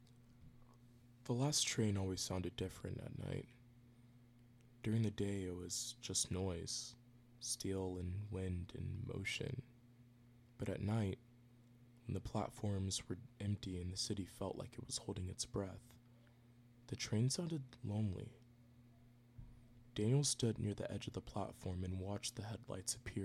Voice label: fiction storytelling, audiobook